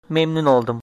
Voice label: meet, turkish